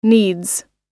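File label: "needs", American English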